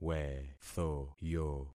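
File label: "wethoyo", concatenated syllables; Affixing experiment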